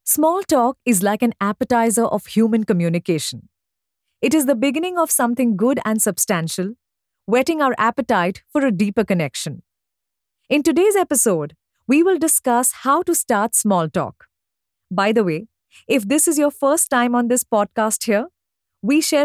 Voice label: english indian podcasts; professionelle indische Sprecherin; indian english voice actors

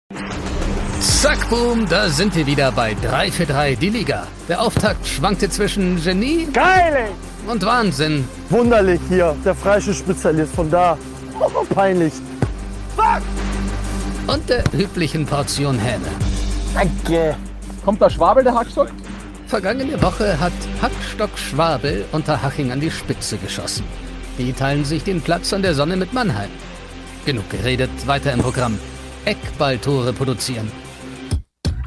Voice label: German TV shows

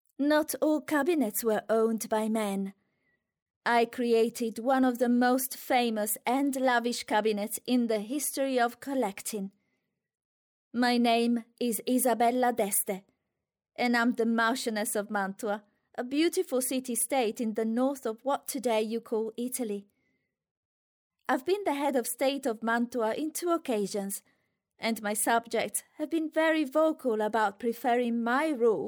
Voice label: italian others

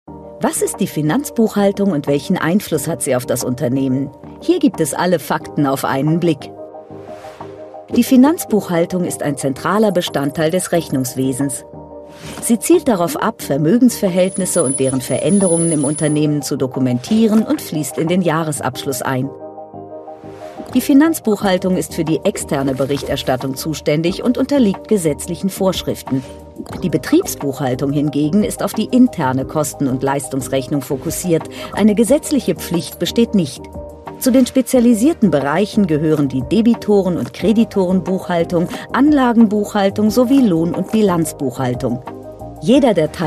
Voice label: german e-learning

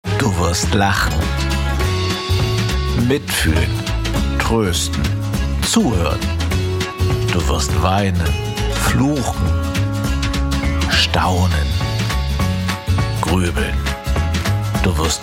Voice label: German commercial

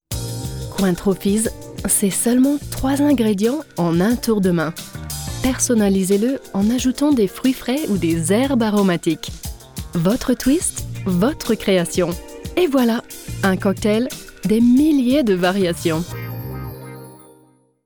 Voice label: french commercial

